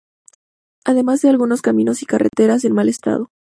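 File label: "caminos", Spanish; mozilla voice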